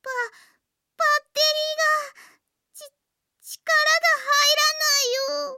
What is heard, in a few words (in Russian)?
zvuki s golosom iaponskoi anime devushki